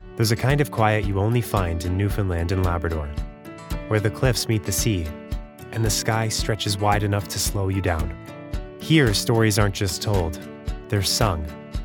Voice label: Newfoundland